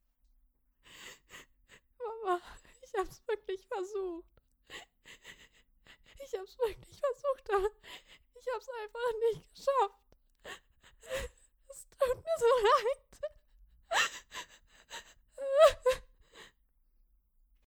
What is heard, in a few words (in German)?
Trauer